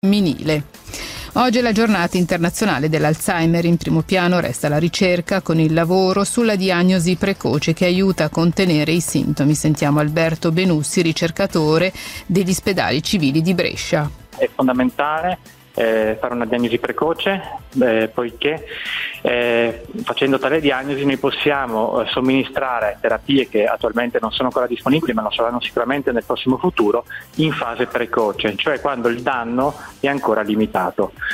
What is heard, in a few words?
RADIO; Radio In Blu